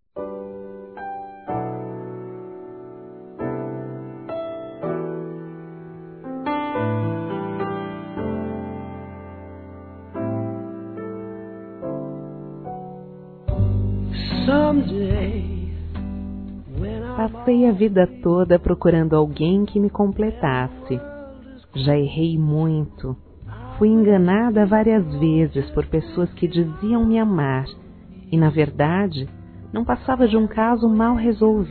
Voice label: GERAL TRISTE F; Dia Dos namorados; fem; Telemensagens 2017

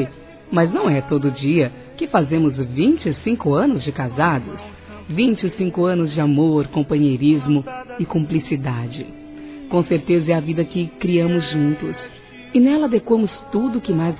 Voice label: Bodas de Prata Feminino, Telemensagens; Bodas e Casamento

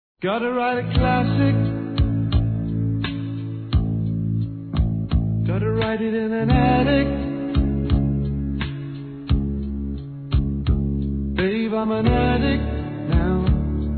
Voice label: Homenagem Irmã Feminino

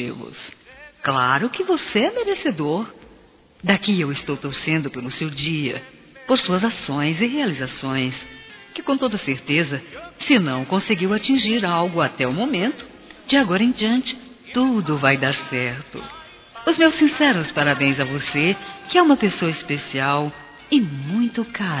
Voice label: Aniversário Primo Feminino; Aniversários; Aniv Familiar

